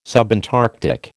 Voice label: "subantarctic", American

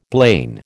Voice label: "blain", American

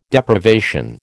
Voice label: "Deprivation", American

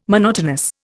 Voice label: "monotonous", Indian

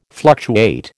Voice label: "fluctuate", American

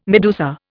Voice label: "medusa", Indian

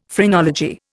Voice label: "phrenology", Indian; Dictionary

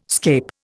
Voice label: "scape", Indian

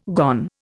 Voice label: "gone", Indian

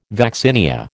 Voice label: "vaccinia", American

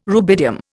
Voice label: "rubidium", Indian

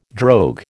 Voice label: "drogue", American

